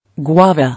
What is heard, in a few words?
"Guava", English